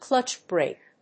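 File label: "clutch brake", English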